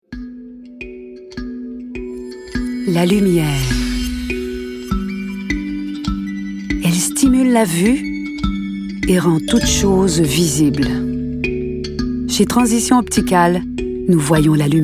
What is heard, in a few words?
narration